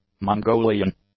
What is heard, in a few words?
"Mongolian", english; adjectives